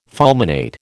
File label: "fulminate", American